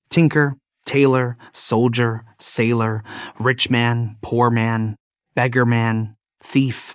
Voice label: Tinker Tailor male us